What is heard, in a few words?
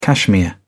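"cashmere", British English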